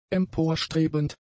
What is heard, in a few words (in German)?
"emporstrebend", german; adjectives